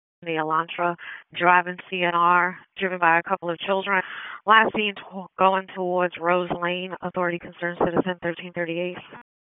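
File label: Raleigh Police Southeast District Dispatch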